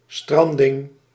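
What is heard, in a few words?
"stranding", Dutch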